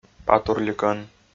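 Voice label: "патурликӧн", Komi, male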